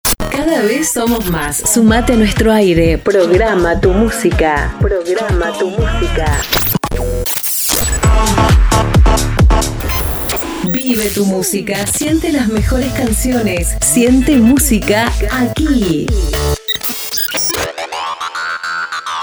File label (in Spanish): Arte Generico Voz Fem, Estilo generico para todo el dia, Voz Femenina